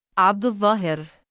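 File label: "3abduZZaahir" male, Arabic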